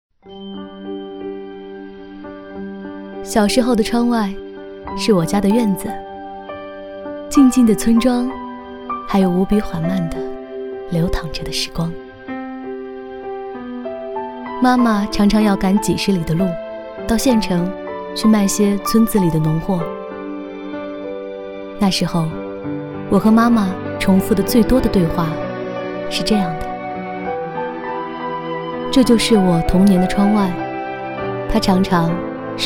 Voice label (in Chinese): 女, 独白, 自然 回忆, 国语女声; 农村淘宝